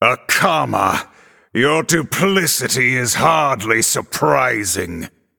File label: Male Night Elf Mission Intro